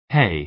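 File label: "hay", American English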